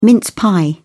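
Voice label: "mince pie", British English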